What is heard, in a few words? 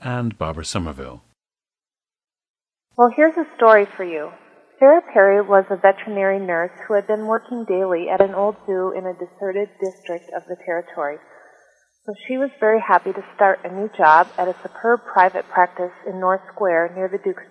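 minnesota, International Dialects of English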